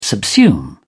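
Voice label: "subsume", British English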